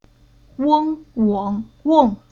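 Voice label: "Weng", Chinese syllable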